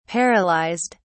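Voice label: "paralyzed", British English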